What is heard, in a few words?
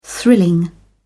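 "thrilling", British English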